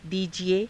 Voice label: "diijie", Hindi; [他動]与えてください; दीजिए

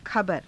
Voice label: "khabara", Hindi

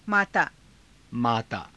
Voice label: "maataa", hindi

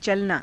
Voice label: "calanaa", Hindi

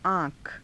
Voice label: "aa~kha", hindi

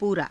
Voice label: "puuraa", Hindi

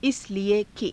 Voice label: "isalie ki", Hindi

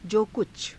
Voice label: jo kucha, Hindi

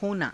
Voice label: "honaa", Hindi

